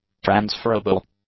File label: "transferable", English; adjectives